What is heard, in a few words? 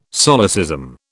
"solecism", British